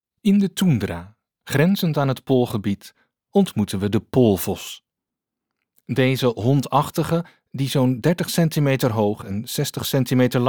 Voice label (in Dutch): Voice over natuur